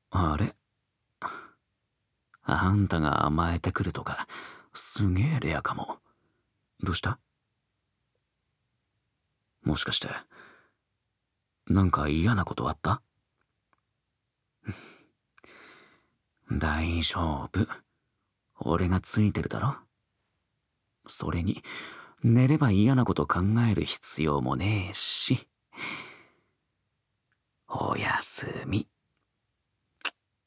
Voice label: おやすみボイス

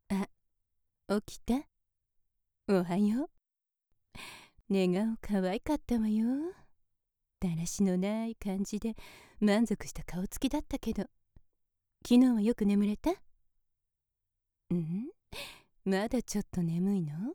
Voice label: おはようレロちゅぱボイス 効果音なし

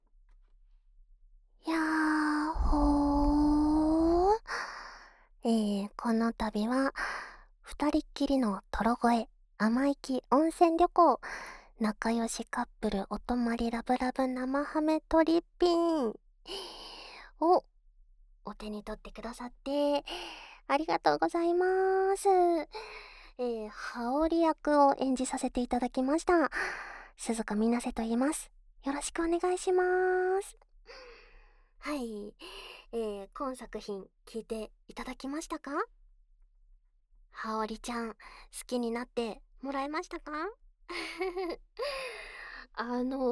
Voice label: フリートーク

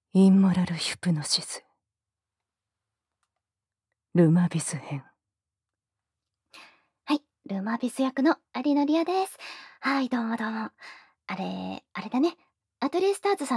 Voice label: フリートーク

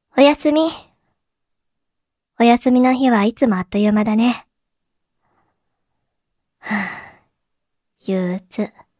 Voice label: 日曜 妹, おやすみ

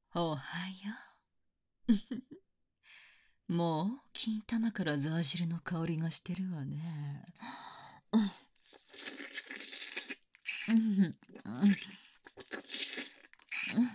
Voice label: アラーム 姉

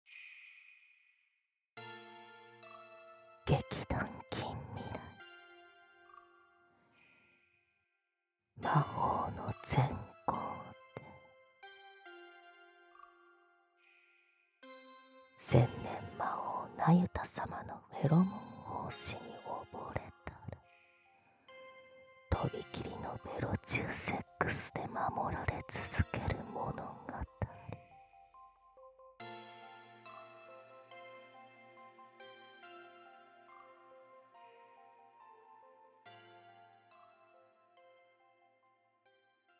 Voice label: タイトルコール

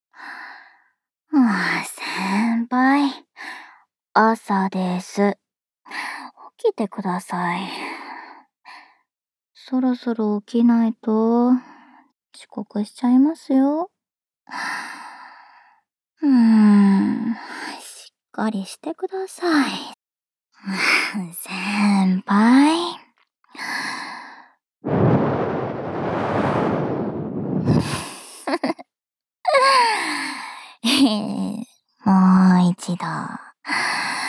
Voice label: スマホ用目覚ましボイス; SE有り

